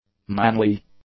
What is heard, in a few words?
"manly", English; adjectives